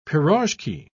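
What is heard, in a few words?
"pirozhki", British English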